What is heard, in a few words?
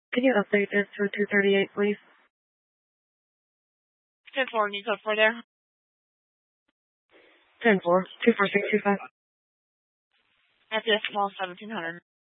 Mesa PD Dispatch